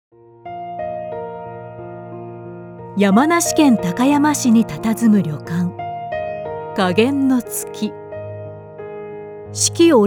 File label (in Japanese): 高級感・情緒的／旅館紹介